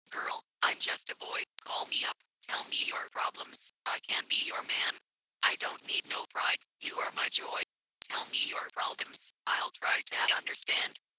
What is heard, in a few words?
Voice Synthesizer